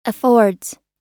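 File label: "affords", American English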